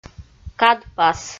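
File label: "кадпас" Komi, female; kpv audio dict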